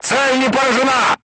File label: voice message armor not pierce, crew tanks russian